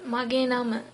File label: my name is, sinhala; මගේ නම ...; magē nama ...